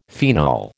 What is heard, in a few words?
"phenol", American